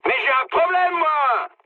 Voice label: aircraft damaged mood high, speech fr

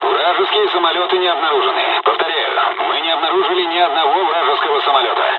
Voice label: dialogs russian; War Thunder

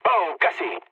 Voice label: bomb failure, mood low, speech; War Thunder

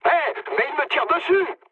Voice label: aircraft damaged mood med, speech fr; War Thunder

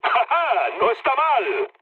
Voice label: trick, mood low, speech; War Thunder

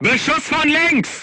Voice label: voice message shot left, crew tanks german

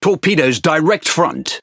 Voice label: Speech Alarms Torpedo Ahead, EN GB; World Of Warships

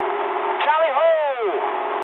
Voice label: eng enemy detected mood med, speech eng; War Thunder

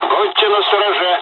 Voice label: dialogs russian; War Thunder

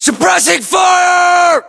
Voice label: order action suppress, US; localized english; battlechatter

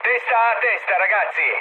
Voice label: near waypoint mood low, speech it; War Thunder

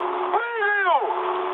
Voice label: bailout, mood high, speech ru; War Thunder

